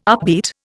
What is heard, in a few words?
"upbeat", Indian